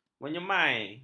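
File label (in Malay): "menyemai", Malay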